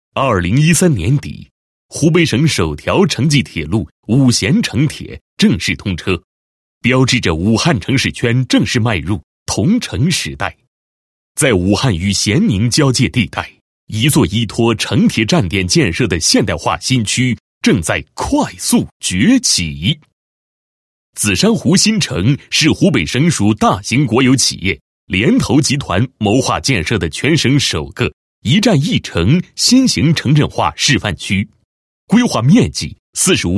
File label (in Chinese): 男 房地产专题 综合体宣传简介; 贺胜1926城站